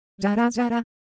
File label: Japanese Texture, Ideo Phones